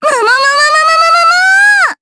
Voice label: Vox Casting, jp; kingsraid